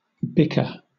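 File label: "bicker", British English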